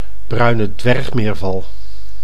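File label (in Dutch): "bruine dwergmeerval", Dutch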